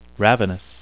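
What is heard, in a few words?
"ravenous", English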